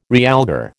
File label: "realgar", American